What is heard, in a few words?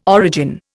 "origin", Indian